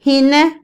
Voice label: "no", Otomí, mujer; Vocabulary; INALI

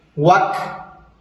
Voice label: seis wak, Maya

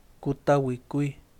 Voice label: iguana, Yaqui

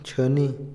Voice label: apurarse, Otomí, hombre; Tiempo; Vocabulary; INALI

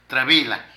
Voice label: Huso, Zapoteco de Petapa; Ropa; Vocabulary

